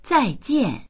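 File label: "zai4jian4", Mandarin Chinese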